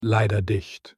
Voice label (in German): Leider dicht Lock Chime; Tesla